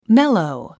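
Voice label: "mellow", English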